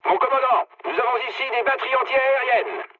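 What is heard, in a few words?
French damaged aa mood low; War Thunder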